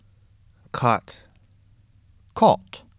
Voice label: "COT", Pronunciation